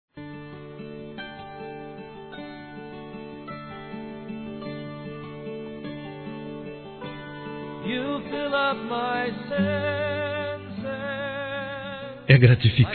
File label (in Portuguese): Aniversário Prima Masculino